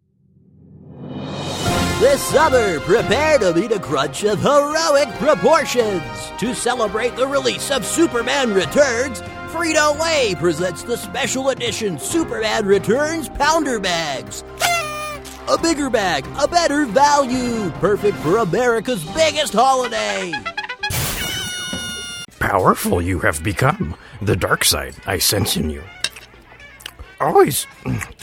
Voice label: Character